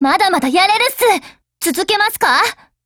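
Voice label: OPERATION OVER Japanese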